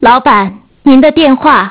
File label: Woman Voice; Innostream INNO 55